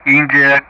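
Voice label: Speech Sound Russian Common Player Alphabet; India-begin; DCS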